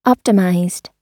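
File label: "optimized", American English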